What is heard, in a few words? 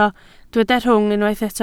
"rhwng", Welsh